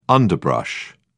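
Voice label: "underbrush", British English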